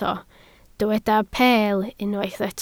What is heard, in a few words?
"peel", Welsh